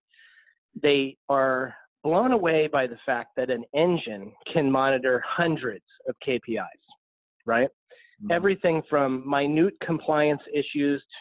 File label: interview speech